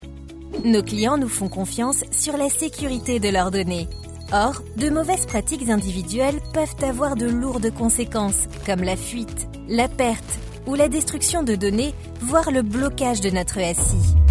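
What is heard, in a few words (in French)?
Institutionnel Voix Off; Voix naturelle, informative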